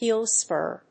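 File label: "Heel Spur", English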